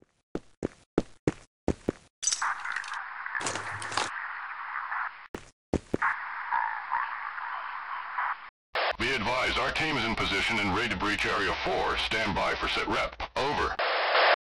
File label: grunts warn; fgrunt